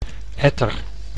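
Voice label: "etter", Dutch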